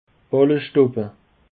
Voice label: "balleschtoppe", Alsace

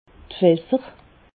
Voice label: "fasser", alsace

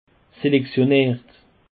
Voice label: "selectionnert", Alsace

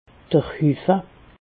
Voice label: "huffe", alsace

